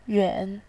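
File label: "yuan", Mandarin Chinese, tone 3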